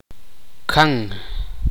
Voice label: "serpiente", Tektiteko